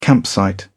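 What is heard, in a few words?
"campsite", British English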